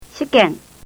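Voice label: "shiken", Japanese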